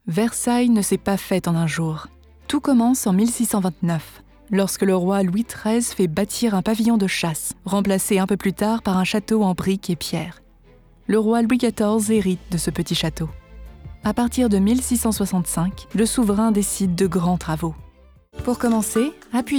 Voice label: narration fr

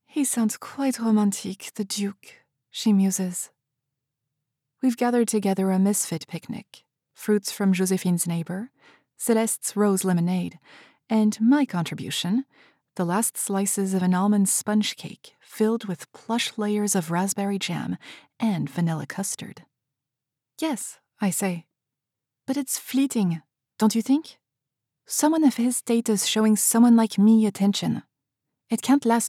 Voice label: ya 1st person mf dialogue fr accent

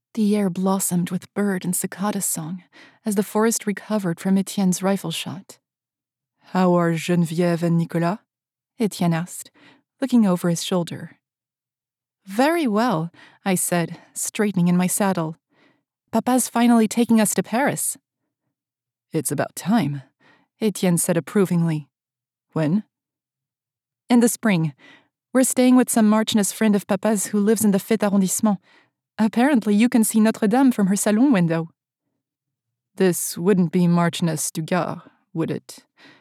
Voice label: historical fantasy mf dialogue french accent; misadventures of an amateur naturalist

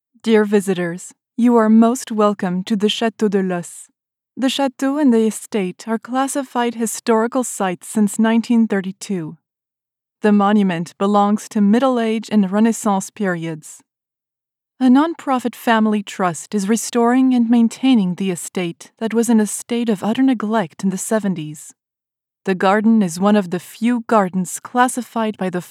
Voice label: audioguide eng

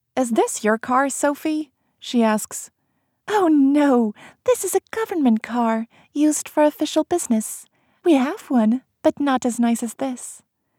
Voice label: eng audiobook

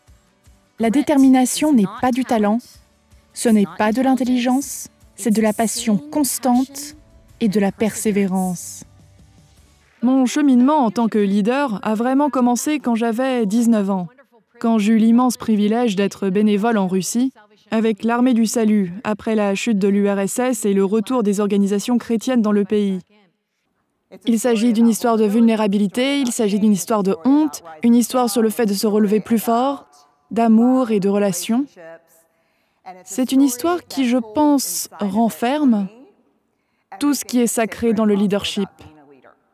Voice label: dubbing french

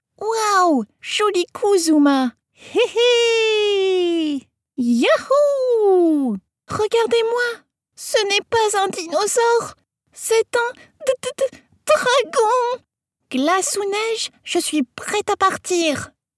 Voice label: animation voices

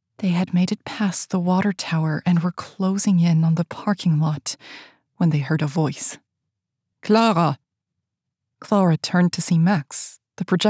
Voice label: suspense 3rd pov mf dialogue german accent; silver blonde

